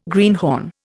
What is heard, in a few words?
"greenhorn", Indian